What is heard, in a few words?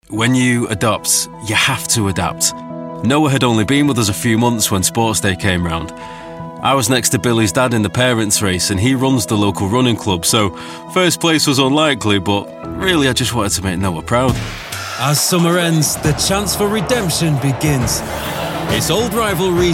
Manchester voice, commercial, Warm Manchester Accented Voiceover